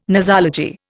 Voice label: "nosology", Indian